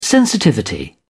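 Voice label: "sensitivity", British English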